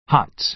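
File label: "hots", American English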